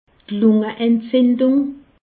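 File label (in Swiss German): "lungeentzindung", Alsatian; medecine; pneumonie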